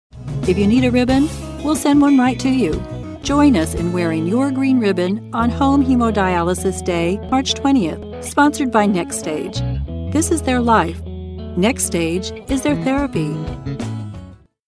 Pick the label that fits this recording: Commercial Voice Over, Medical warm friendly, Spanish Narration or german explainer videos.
Medical warm friendly